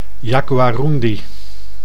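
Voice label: "jaguarundi", Dutch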